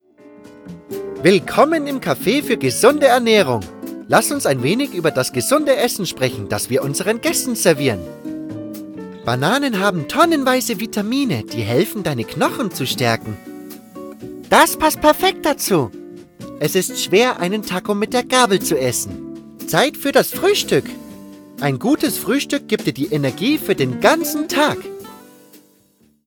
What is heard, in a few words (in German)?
Ernaehrung kinderapp de